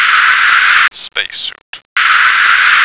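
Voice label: "spacesuit", American English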